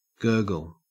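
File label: "gurgle" Australian English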